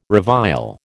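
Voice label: "revile", American English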